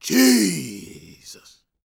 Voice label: preachin, gospel preacher; Spectrasonics; Vocal Planet Vol.1 Gospel; akai

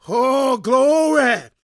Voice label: preachin, gospel preacher; Spectrasonics; Vocal Planet Vol.1 Gospel; akai

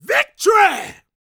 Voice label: PREACHIN, Gospel, PREACHER; Spectrasonics; Vocal Planet Vol.1 Gospel

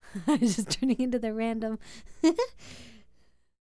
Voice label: SPEAKING, Vocal Jazz Fx

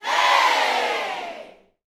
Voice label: "HEY", Gospel shout; Spectrasonics; Vocal Planet Vol.1 Gospel; akai; UYC SHOUTS

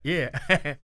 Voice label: TALK, Vocal Jazz Fx

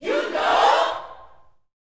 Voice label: "U KNOW", Gospel shout; Spectrasonics; Vocal Planet Vol.1 Gospel; akai; UYC SHOUTS

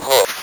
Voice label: ROB VOX

